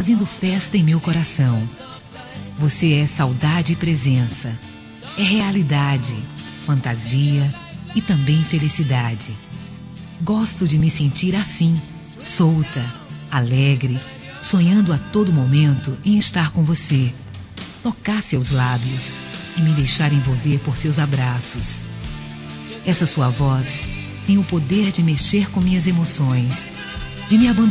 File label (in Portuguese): ROM ESTOU APAIXONADA POR VOCE FEM